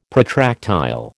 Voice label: "protractile", American